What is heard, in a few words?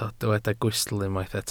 "gwystl", Welsh